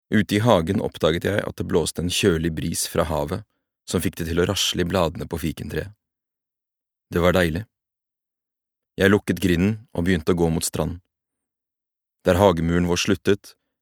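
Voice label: Norwegian audiobook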